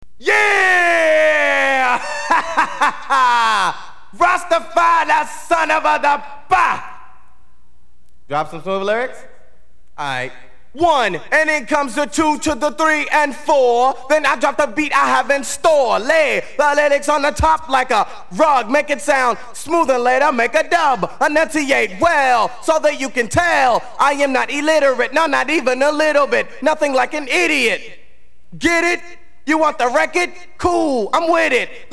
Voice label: acapella